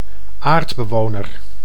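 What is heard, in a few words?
"aardbewoner", Dutch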